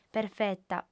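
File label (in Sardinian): "perfeta", female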